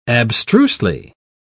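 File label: "abstrusely", English, British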